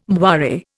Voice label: "moire", Indian